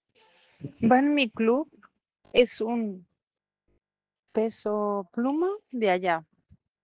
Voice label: Voice message